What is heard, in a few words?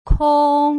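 "kong", Putonghua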